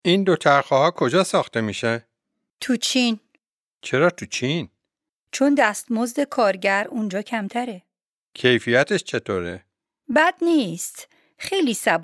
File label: Dialogue lesson Farsi